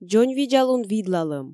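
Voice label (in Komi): "дзоньвидзалун видлалӧм", Komi, female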